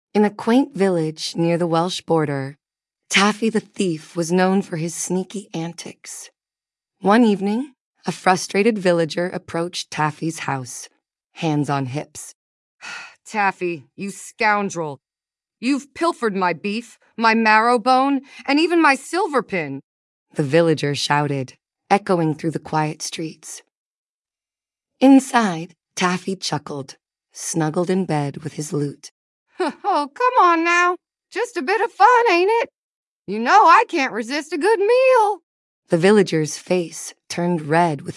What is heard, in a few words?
fem brit; Taffy was a Welshman